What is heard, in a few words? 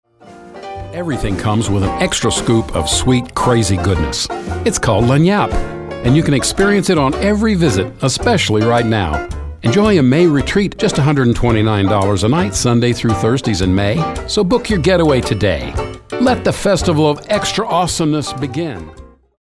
Friendly